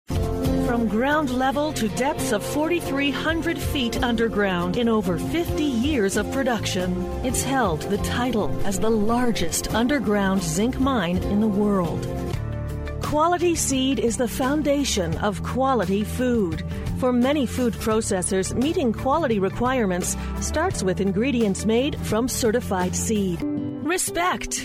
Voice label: Narration Female; commercial voiceover